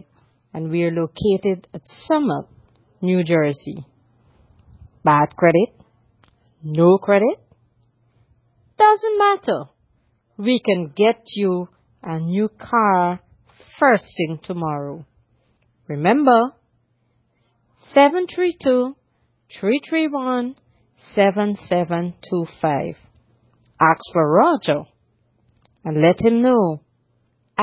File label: VOLKSWAGEN CAR COMMERCIAL